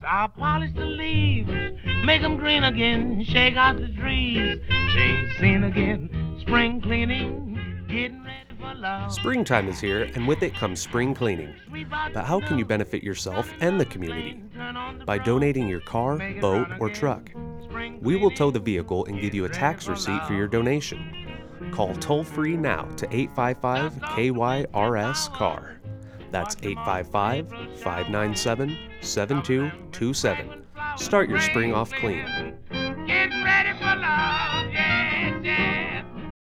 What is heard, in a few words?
PROMO; Car Donation; Spring Cleaning